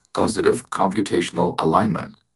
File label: happy, speech; fertig classic games; cybro